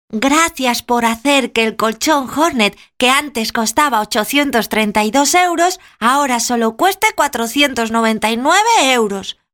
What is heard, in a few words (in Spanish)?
infantiles